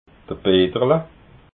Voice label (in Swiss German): "peterla", alsace